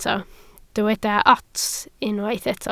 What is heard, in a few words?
"ots", Welsh